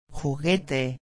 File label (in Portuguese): "juguete", espanhol; palavra do dia